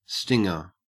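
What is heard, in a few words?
"stinger", Australian English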